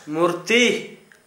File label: idol, Gta, Dialect: Hill; murti